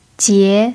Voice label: "jie2", chinese